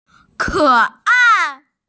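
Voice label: "ke ai", Mandarin Chinese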